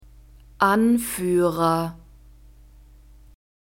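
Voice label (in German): "Anführer", German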